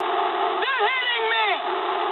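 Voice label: aircraft damaged mood high, speech English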